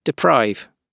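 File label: "deprive", British English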